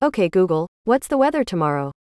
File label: ok g us female whats the weather tomorrow